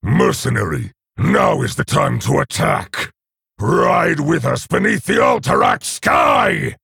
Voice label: Male Orc Bark; Captain Galvangar

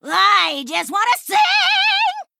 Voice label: Female Pitcher Attack

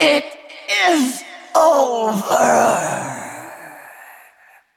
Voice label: Female Val'kyr Death

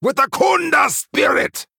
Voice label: Male Troll Attack

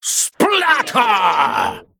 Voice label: Male Ooze Attack

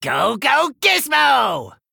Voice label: Male Gnome Attack

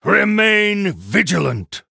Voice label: Male Human Bark; Uther

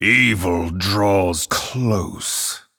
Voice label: Male Night Elf Greetings

